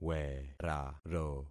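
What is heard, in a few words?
"weraro", concatenated syllables; Affixing experiment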